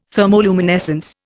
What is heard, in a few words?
"thermoluminescence", Indian